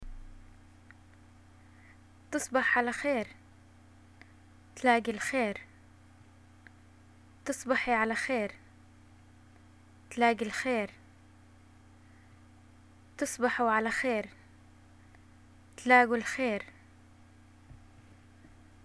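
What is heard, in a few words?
goodnight, Jordanian Arabic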